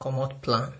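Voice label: "comot plan", Nigerian Pidgin, male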